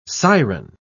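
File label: "siren", spoken word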